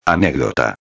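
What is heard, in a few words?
"anecdota", Spanish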